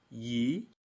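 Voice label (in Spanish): "lli", Español; Espagnol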